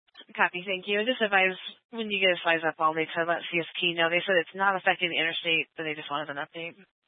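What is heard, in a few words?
Incident Tac 1, Scanner audio recording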